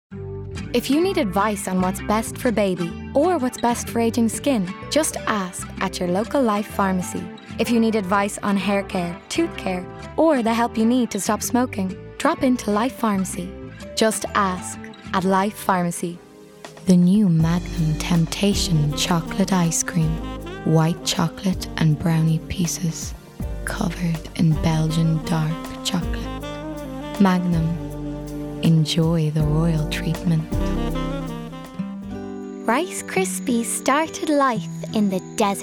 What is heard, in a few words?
Commercials